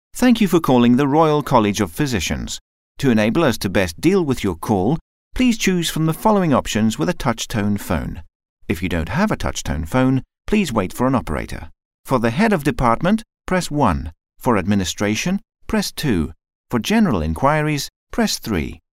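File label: English british male; dictor; dictor-sounds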